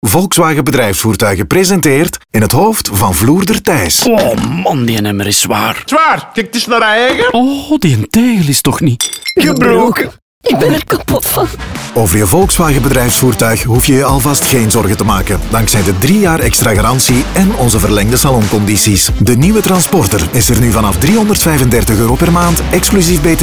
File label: Radiospot; Volkswagen Bedrijfsvoertuigen; saloncampagne; voltage